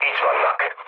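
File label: enemy detected, mood low, Hungarian; speech; War Thunder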